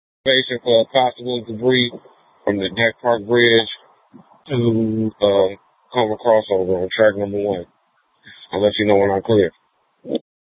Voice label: Public Transit System Light Rail Ops, scanner

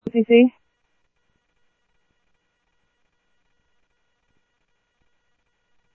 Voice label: Rail Ops, scanner audio; ThompsonPeakHigh

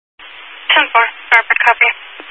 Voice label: Highway Patrol, scanner; DPS

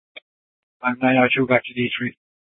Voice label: Nth Dispatch, Scottsdale PD; Regional Wireless Cooperative (RWC); Thompson Peak High

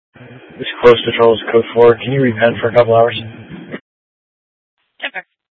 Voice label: Dispatch; Scottsdale; Sctdal PD Thompson Peak high

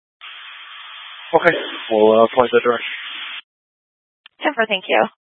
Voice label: Mutual Aid-Intersystem Interop B, scanner

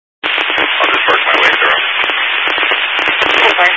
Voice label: Highway Patrol, Phoenix Metro East Area, scanner

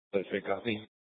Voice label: Mesa PD Central Dispatch, scanner; Mesa Simulcast

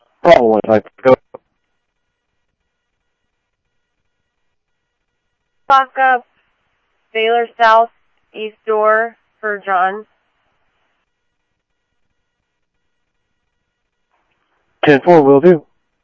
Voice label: Security, scanner audio

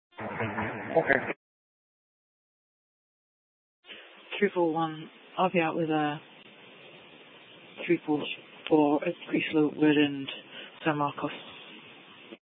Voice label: Police Department Dispatch; Apache Junction; TOPAZ Regional Wireless Cooperative (TRWC); Mesa Simulcast

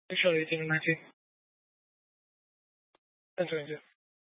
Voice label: Mesa PD Dispatch

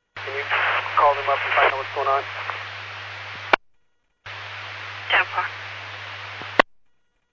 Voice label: Medevac, scanner; NativeAirAmb

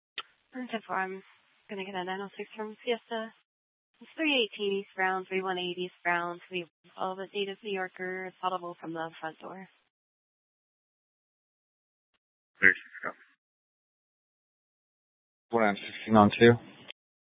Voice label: Mesa PD Central Dispatch, Scanner; TOPAZ Regional Wrlss Cooperative (TRWC) - Mesa Simulcast

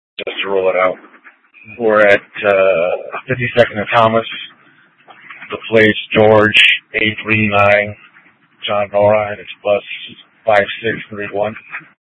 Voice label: Scottsdale PD Sth Dispatch, scanner

